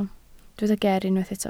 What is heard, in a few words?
"ger", Welsh